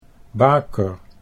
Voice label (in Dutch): "baker", Dutch